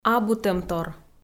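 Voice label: "абутӧмтор", Komi, female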